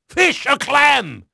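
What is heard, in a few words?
English male; Pirates Of The Caribbean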